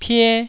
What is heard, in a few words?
"pie1", taiwanese